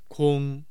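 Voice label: "kong", Mandarin Chinese, tone 1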